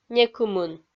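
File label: "некымын", Komi-Zyrian, female; kpv audio dict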